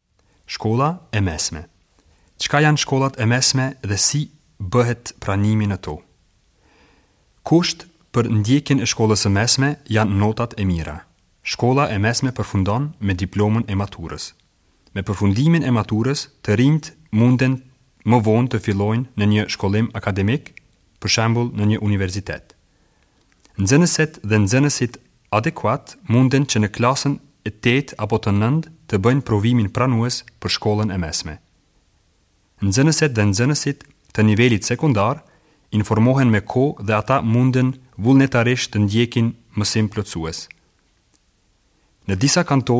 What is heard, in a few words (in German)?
mittelschule albanisch